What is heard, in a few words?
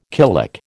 "killick", American